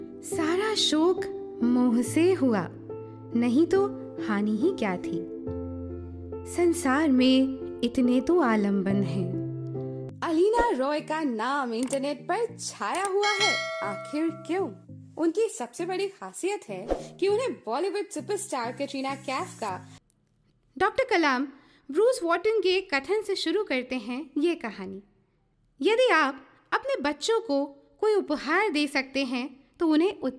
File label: hindi